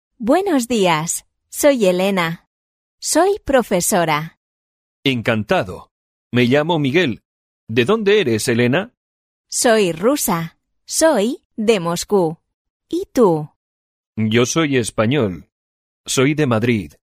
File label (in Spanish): leccion dialogo